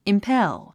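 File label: "impel", English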